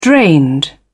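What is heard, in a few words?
"drained", British English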